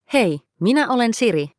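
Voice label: Finnish; iOS Sounds; suvi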